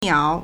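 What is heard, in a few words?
"niao3", Mandarin Chinese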